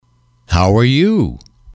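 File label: "how are you", English, male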